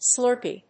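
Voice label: "slurpy", English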